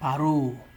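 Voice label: "parole", Nigerian Pidgin, male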